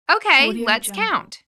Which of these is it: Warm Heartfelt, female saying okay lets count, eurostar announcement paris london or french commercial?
female saying okay lets count